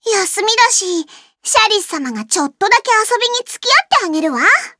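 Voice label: start, Japanese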